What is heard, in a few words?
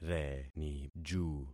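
"reniju", concatenated syllables; Affixing experiment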